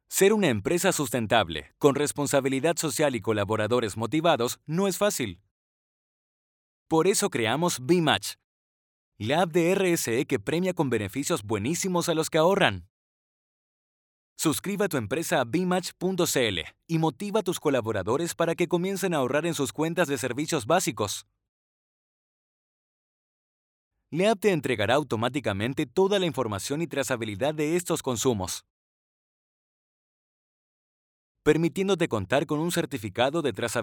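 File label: e-learning, Argentine Spanish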